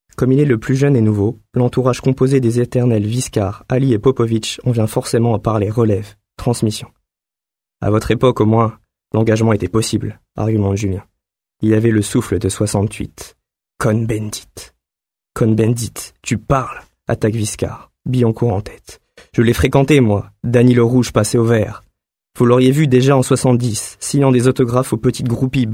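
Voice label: French, male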